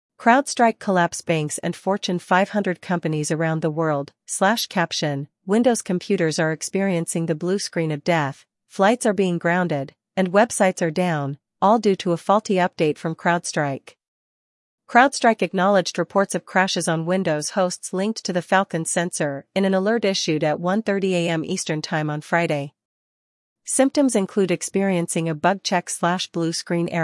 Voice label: Female voice over; crowd strike news